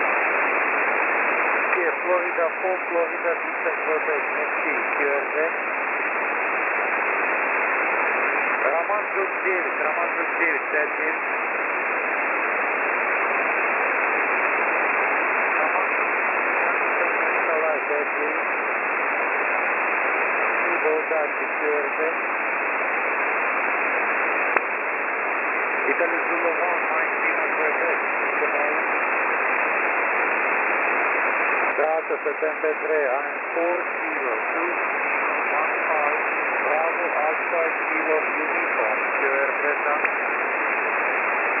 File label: qso